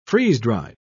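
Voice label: "freeze-dried", British English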